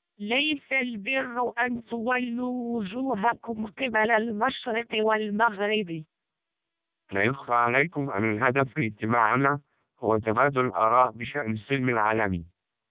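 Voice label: Arabic short, speechsamples, MELPe 600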